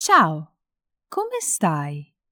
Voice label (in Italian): "Ciao come stai", Italian; hello in italian